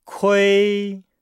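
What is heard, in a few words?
"kui", Chinese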